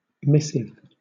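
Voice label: "missive", British English; pronunciations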